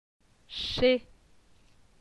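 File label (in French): "che", French; phonetique; la syllabe e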